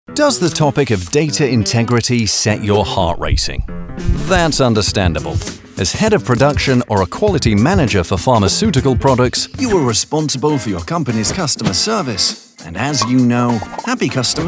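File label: Upbeat and Friendly Explainer Video Audio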